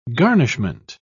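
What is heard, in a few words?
"garnishment", British English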